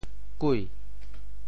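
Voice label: "kui2", Teochew; 潮州; bhai2鬼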